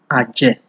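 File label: "aje", Nigerian Pidgin, male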